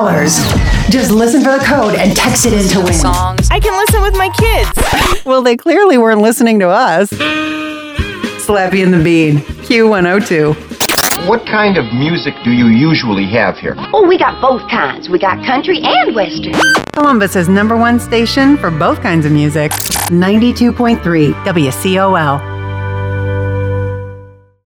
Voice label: Radio Imaging